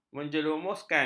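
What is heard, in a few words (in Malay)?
"menjerumuskan", Malay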